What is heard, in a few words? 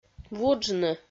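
"вуджны", Komi, female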